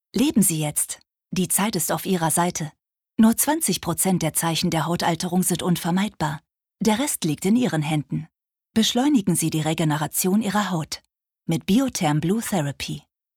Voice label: Werbung

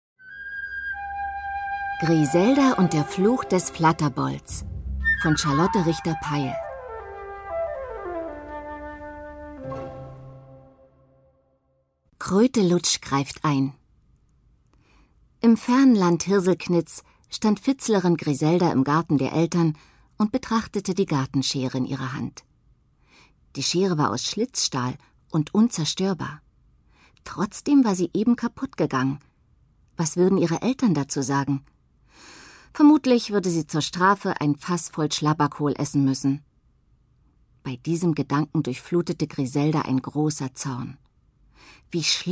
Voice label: Hoerbuch Lesung; rbb; Ohrenbaer

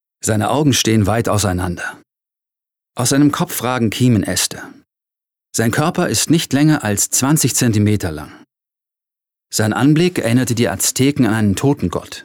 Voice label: Dokumentarischer Text; LOFT VOICES

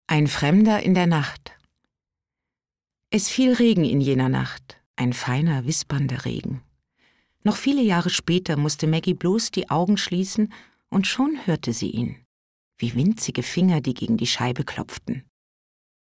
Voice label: erzaehlung

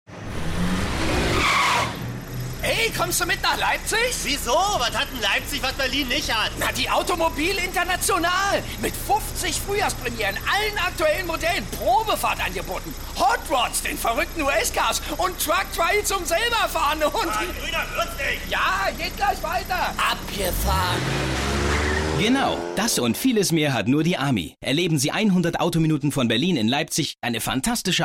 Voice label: dialekt

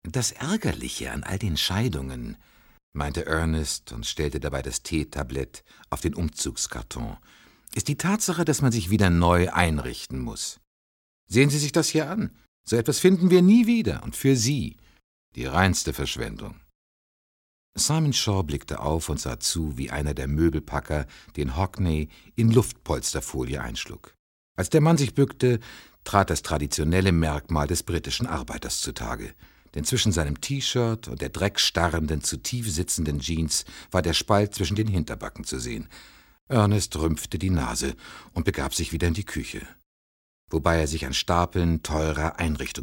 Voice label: erzaehlung

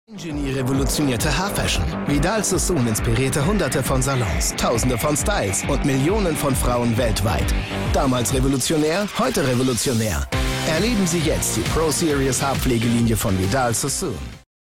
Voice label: tv werbung; vidaal sassoon

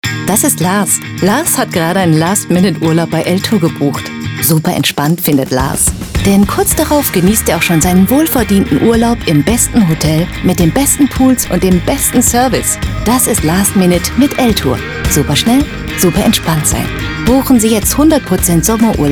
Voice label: Werbung Urlaub

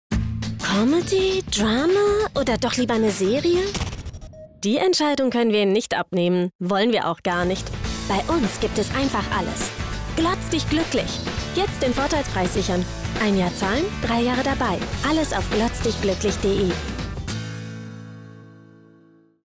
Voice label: fernseh werbung

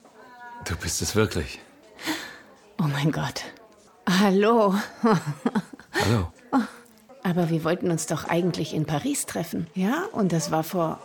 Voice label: de Synchron sanft; wiedersehen

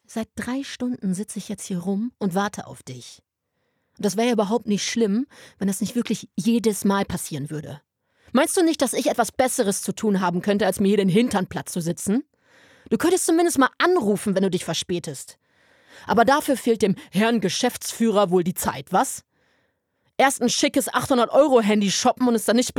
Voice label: Schauspiel

